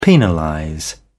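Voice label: "penalize", English, British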